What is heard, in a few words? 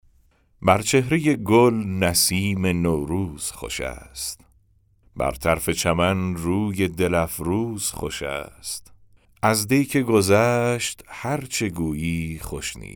Narration; nowruz